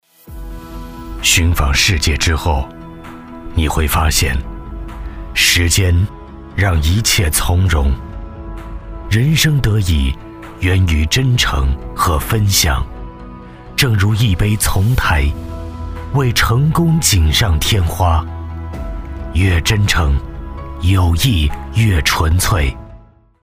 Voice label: 男 广告 自白式广告; 丛台酒

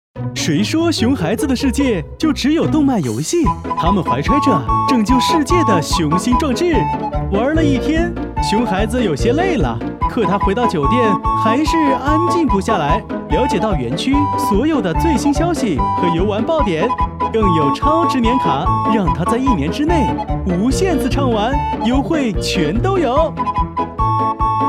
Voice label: 男 较欢快

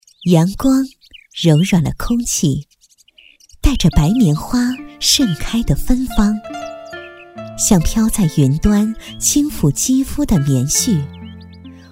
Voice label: 女 专题; 美颜纺织

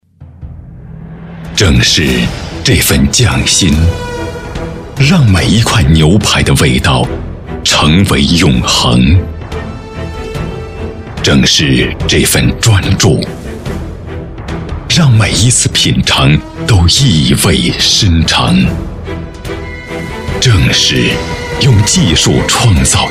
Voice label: 男 专题

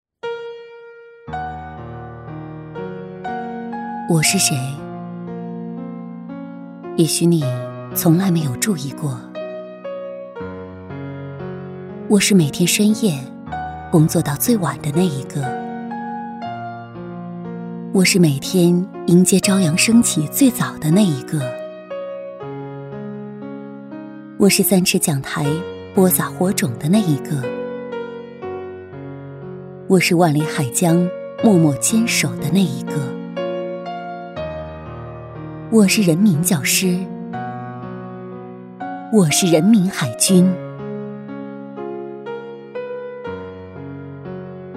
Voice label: 女 旁白 我是谁知性磁性

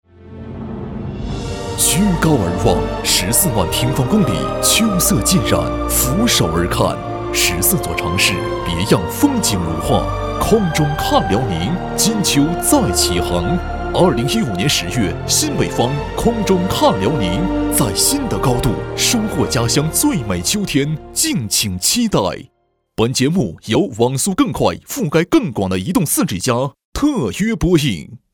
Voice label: 男 片花; 空中看辽宁

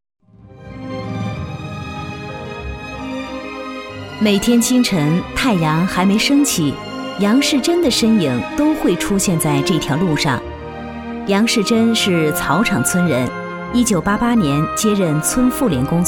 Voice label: 女 专题 人物专访专题配音

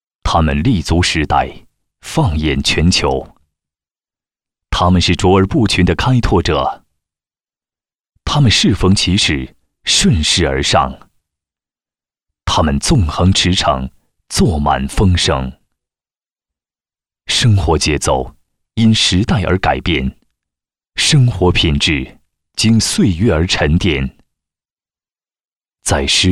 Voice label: 男42 专题; 金立公司

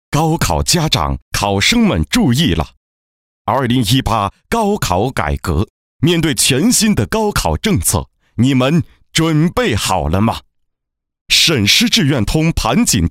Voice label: 男 广告; 教育; 鼎元教育

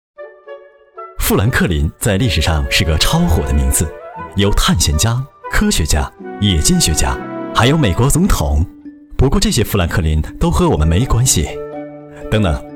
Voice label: 男; 飞碟说; 5分钟让你了解自己都交了哪些税

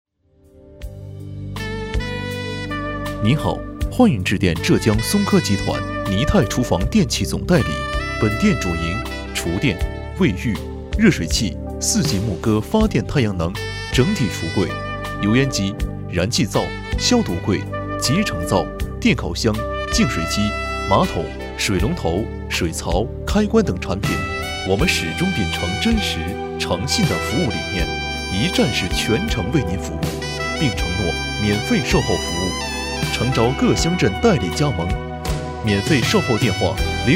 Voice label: 男 彩铃; 浙江松科集团

